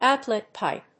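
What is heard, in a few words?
"outlet pipe", English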